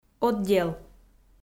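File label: "отдел", Komi-Zyrian, female; audio dict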